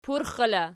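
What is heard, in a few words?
"purxela" nominative, Archi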